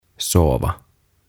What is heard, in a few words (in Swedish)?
"Sova" "Nukkua"